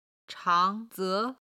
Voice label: "chang2 ze2", Chinese

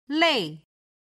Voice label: "lei4", Chinese

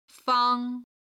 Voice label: "fang", Chinese

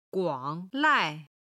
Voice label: "guang3 lai4", Chinese

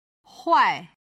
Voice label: "huai4", chinese

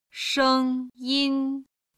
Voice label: "sheng1yin1", chinese

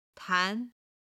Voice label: "tan2", Chinese

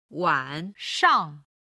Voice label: "wan3shang5", chinese

